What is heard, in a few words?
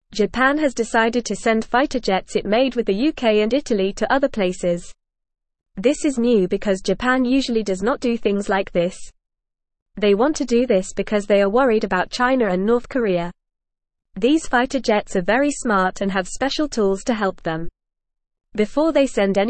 English FAST Reading; English Newsroom; Japan Sends Fighter Jets to Keep Peace with Other Countries